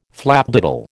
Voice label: "flapdoodle", American

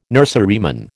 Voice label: "nurseryman", American

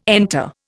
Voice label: "enter", Indian

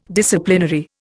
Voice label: "Disciplinary", Indian

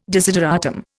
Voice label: "desideratum", Indian

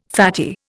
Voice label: "fatty", Indian

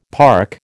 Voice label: "park", American

